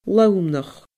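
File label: "lomnochd", Scottish Gaelic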